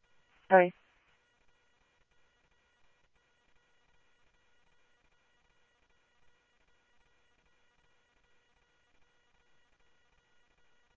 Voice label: Mesa Simulcast, MESA PD, scanner audio